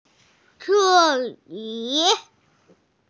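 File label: "ke3yi3", Mandarin Chinese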